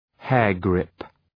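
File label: "hairgrip", English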